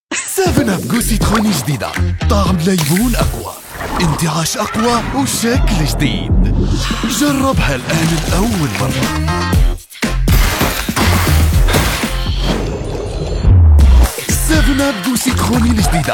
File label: Algerian Commercial; algerian voiceover